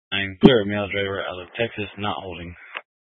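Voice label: Troop 2A Dispatch, scanner audio recording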